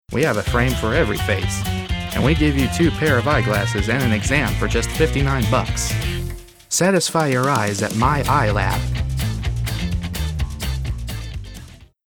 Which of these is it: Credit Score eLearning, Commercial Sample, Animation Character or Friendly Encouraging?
Friendly Encouraging